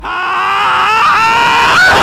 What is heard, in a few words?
soldier yelling at you